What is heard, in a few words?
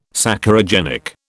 "Saccharogenic", British